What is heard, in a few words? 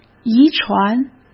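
"遗传", Mandarin Chinese; hsk; chinese-flashcards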